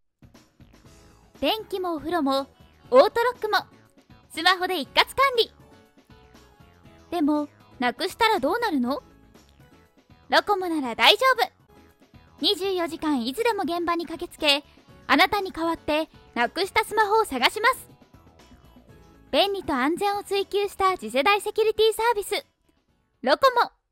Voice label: Web CM; 可愛らしいキャラクターによるレクチャー